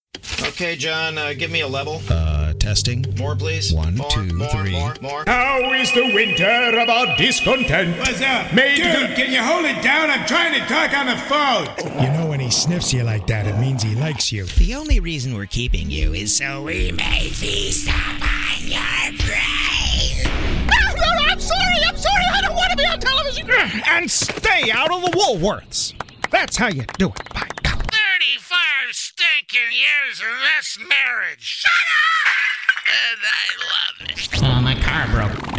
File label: Character VO